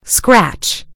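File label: "scratch", British English; gcompris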